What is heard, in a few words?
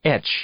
"etch", English; verb